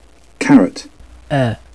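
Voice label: "carrot", British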